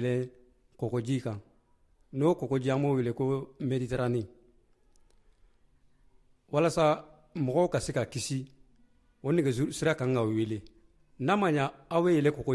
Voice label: alarm phone BAMBARA Version